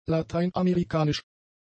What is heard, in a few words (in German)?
"lateinamerikanisch", German; adjectives